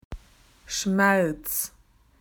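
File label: "pain", Australian English; PictureDictionary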